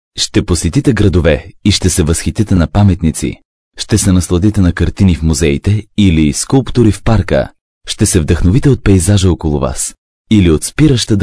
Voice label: Bulgar Male